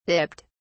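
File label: "dipped", British English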